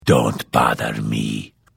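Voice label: Hello, Vo; Morrowind GOTY GOG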